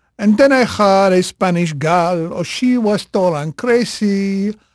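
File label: Spanish male, voice; Pirates Of The Caribbean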